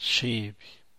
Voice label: "shib", San Mateo Macuilxochitl Zapotec; knee; rodilla